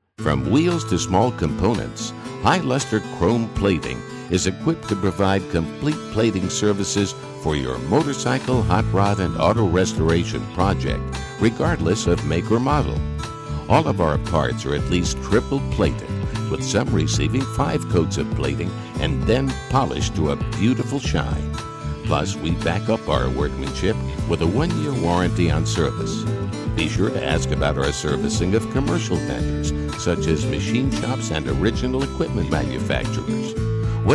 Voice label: male US telephone; uk sound studios